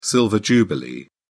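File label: "silver jubilee", British English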